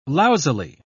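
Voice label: "lousily", British English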